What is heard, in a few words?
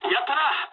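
player killed enemy mood high, speech japanese; War Thunder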